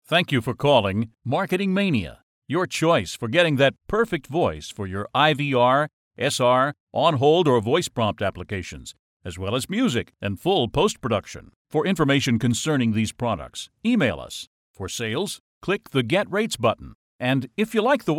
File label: Voice Sample, onhold